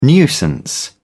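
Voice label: "nuisance", British English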